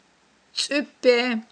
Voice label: "цIуьппе", aghul